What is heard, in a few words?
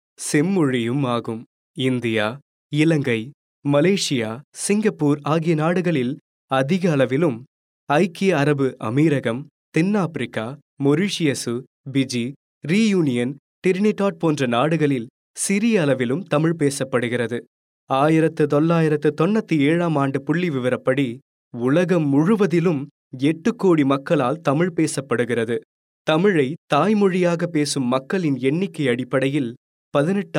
male tamil voice over talent narrative